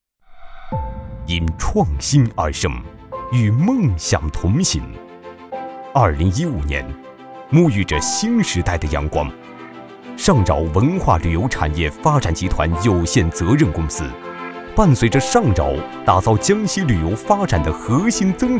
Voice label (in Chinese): 城市宣传片